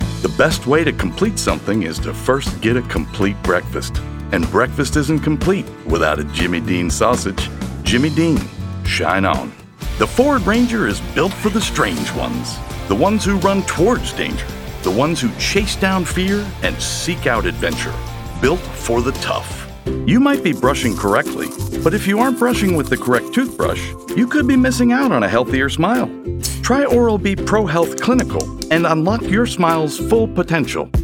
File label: commercial